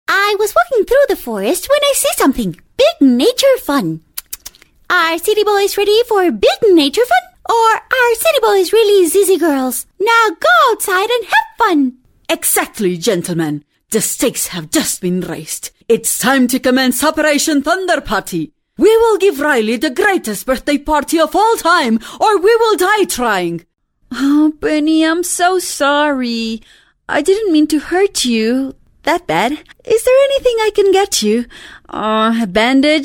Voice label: Animación English

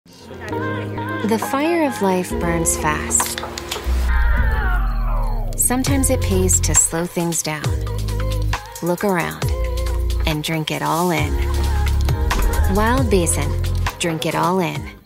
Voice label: WARM SEXY COOL INTIMATE; Wild Basin

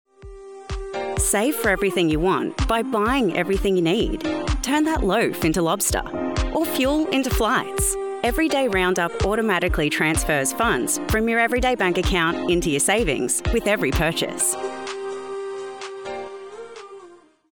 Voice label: commercial, banking, conversational

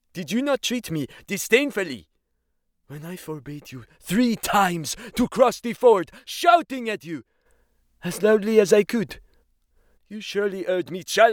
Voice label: French

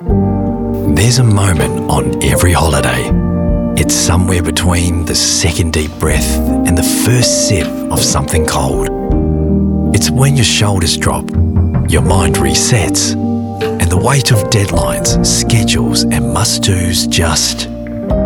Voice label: commercial cruise, en-AU

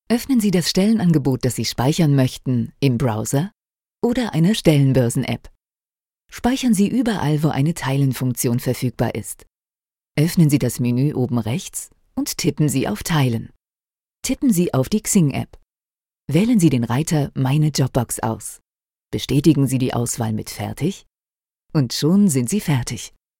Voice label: Tutorial; XING

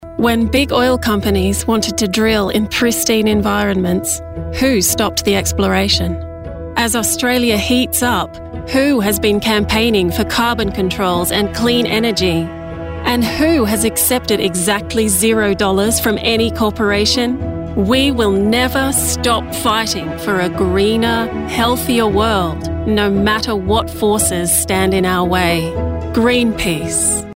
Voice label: Ad

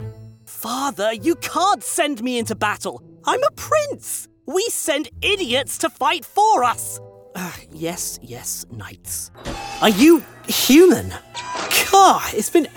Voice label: British Accent Character; London, UK; british rp | character; english

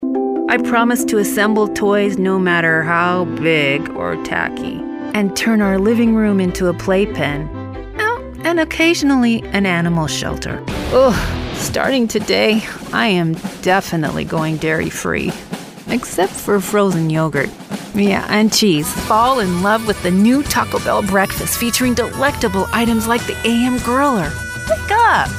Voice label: Commercial

